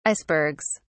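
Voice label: "icebergs", British English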